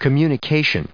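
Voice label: "communication", US English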